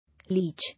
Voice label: "Leech", English